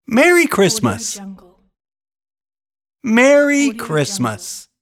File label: male voice merry christmas